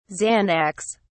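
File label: "xanax", English, British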